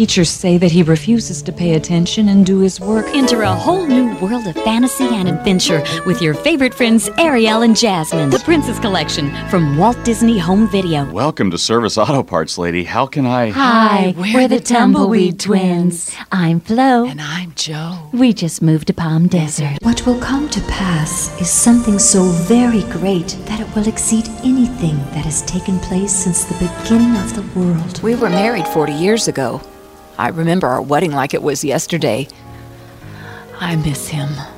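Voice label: English American female voice Composite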